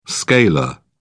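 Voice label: "scalar", British English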